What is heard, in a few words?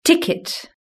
"ticket", british english